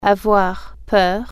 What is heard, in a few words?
"be scared", French